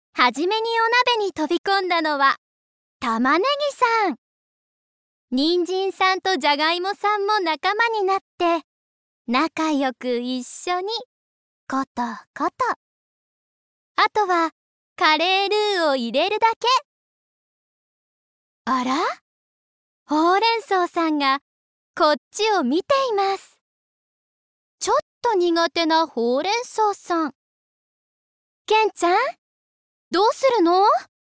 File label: Narration Kids, ナレーション②子供向け番組